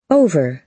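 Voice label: "over", American English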